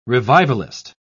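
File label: "revivalist", British English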